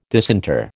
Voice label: "disinter", American